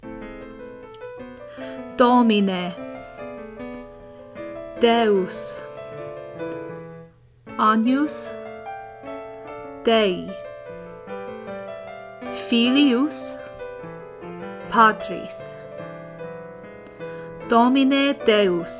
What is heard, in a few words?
Domine Deus, Agnus Dei Pronunciation